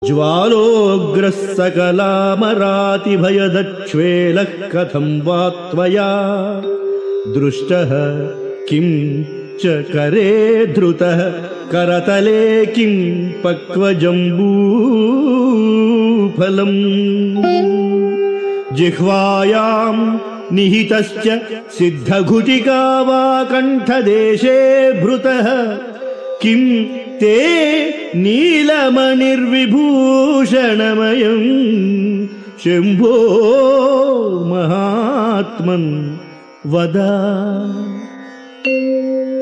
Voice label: Sanskrit; Sri Adi Sankaracharyas Sivananda Lahari; Adi Shankaracharya; श्रीशिवानन्दलहरी ३२